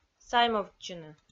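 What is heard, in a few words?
"саймовтчыны", Komi, female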